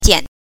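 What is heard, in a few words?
"jian", Mandarin Chinese pinyin, neutral tone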